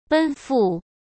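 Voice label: "奔赴", Mandarin Chinese; hsk; chinese-flashcards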